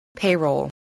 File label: "payroll", English, voice